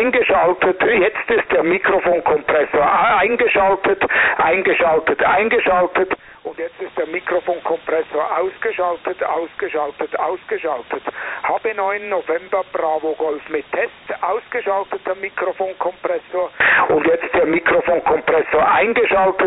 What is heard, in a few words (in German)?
ft-817 mit Kompressor